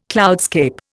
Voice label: "cloudscape", Indian